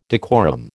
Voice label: "decorum", American